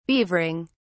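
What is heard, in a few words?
"beavering", British English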